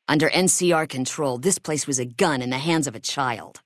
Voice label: female adult greeting